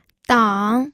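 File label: "dang", Mandarin Chinese, third tone; pinyin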